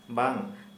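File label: Santali, male, no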